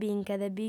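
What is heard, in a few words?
"binchet", female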